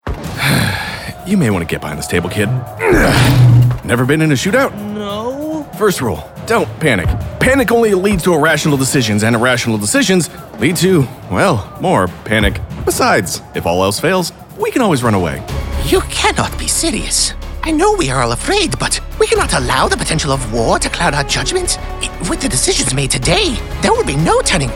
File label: ANIMATION